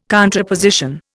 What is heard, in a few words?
"contraposition", Indian